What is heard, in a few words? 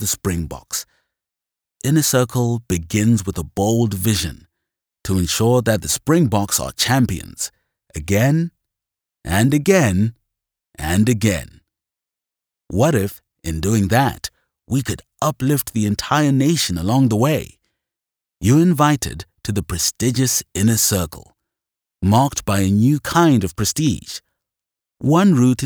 South African Charity Project, Conversational Male Voice Over, Deep Male Voice Over, African Male Voice Over; ForAfrika